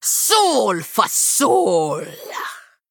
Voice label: Female Troll Attack

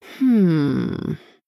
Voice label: Female Human Thinking

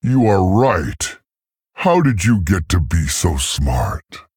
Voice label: Male Tauren

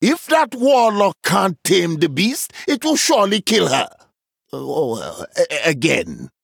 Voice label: Male Troll; Story Hero Brukan; Story Rokara Mission3 Exchange Brukan

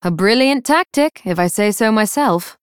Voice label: Female Night Elf

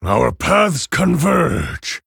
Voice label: Male Orc Ogre Greetings

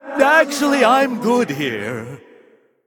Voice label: Male Human Play

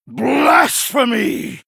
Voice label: Male Human Attack

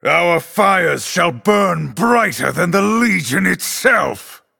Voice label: Male Night Elf FIRE FESTIVAL

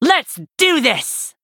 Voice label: Nonbinary Night Elf

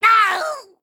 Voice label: Male Imp Death

